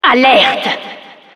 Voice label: announcer alert, fr

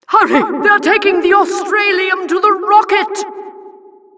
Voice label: announcer

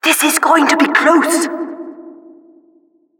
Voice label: Announcer race general

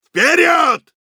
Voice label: battlecry, ru; Вперёд!; Реплики солдата

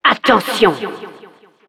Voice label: Announcer attention fr

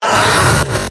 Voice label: scout pain severe

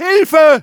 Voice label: medic help me, de

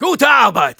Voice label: good job de; Soldier; "Gute Arbeit!"

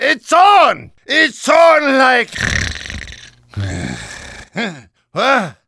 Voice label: Demoman gibberish

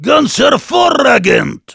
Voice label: positive vocalization, de; Heavy

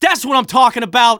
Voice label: positive vocalization; Scout

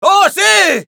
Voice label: cheers es; Scout

